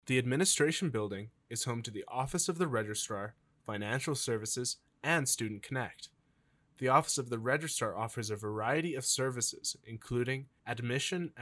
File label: English; Administration Building (ADM); NSO Tour